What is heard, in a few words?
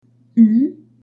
u circonflexe, French accents